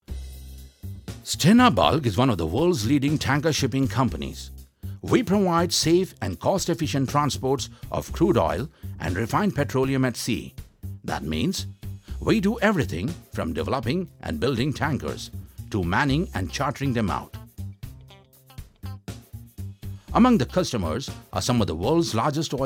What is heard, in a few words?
Corporate English; stena